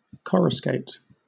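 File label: "coruscate", British English; pronunciations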